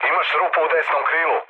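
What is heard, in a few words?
Serbian right wing mood low, speech; War Thunder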